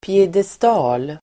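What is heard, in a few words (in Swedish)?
"piedestal", Swedish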